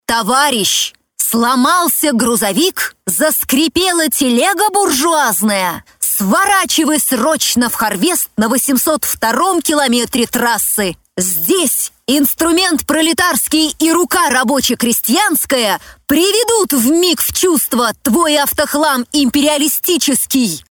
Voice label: patriotichno, patriotic